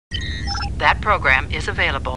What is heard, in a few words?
Star Trek Computer Voice; StarTrekLCAR